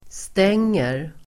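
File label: "stänger", Swedish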